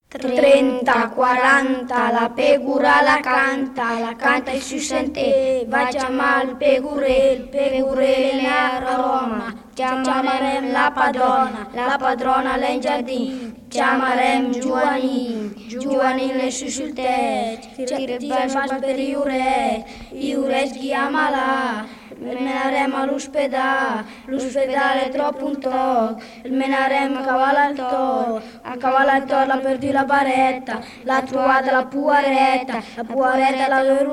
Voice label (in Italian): filastrocca; brianza; trenta quaranta